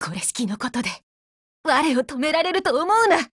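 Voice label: BREAK, Japanese; GF Alarm; KSVKMod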